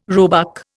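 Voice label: "roebuck", Indian English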